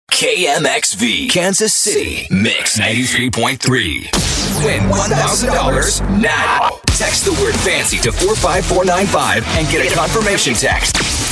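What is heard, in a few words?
Radio Imaging, American Male Voice; CHR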